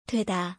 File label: "doeda", Korean word